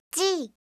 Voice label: "g", alphabet

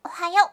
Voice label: "ohayo", voice, aisatsu

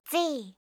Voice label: "z", alphabet

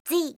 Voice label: "z", alphabet

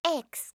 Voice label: "x", alphabet

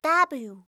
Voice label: "w", alphabet

